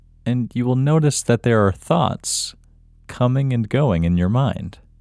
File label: LOCATE short IN Male